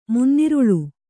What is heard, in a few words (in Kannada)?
"ಮುನ್ನಿರುಳ್", Kannada